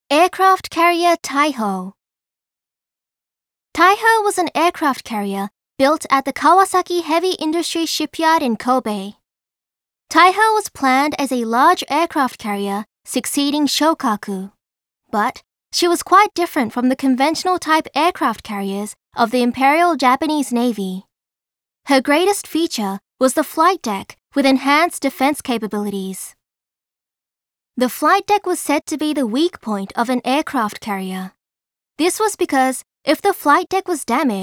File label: English; Yamato Museum